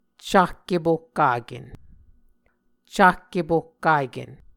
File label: "cahkepohkaken", muscogee; Mvskoke Words with Voicing Consonants; A Dictionary of Creek/Muskogee; SIL International